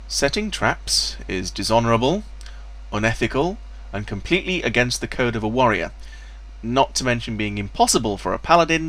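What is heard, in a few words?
female pick; nwnds audio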